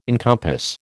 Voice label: "encompass", American